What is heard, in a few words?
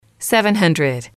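"seven hundred", English, female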